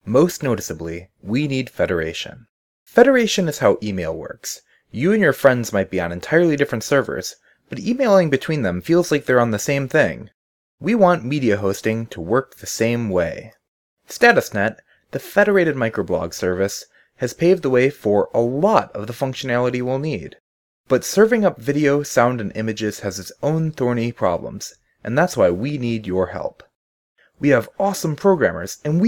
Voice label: speaking denoise